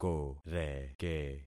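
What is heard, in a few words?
"koreke", concatenated syllables; Affixing experiment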